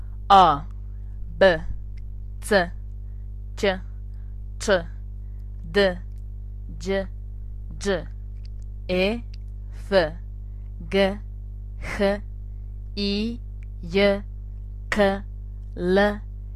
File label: Croatian Alphabet